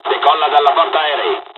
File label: takeoff, dialogs italian; War Thunder